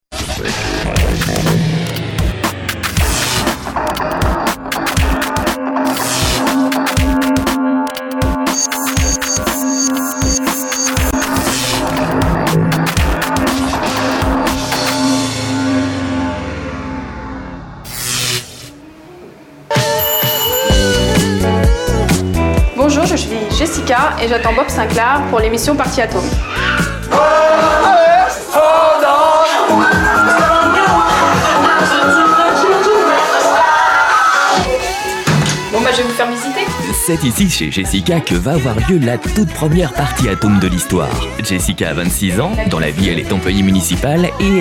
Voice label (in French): connivence sourire, voix off emission, homme; PARTY AT HOME connivence, sourire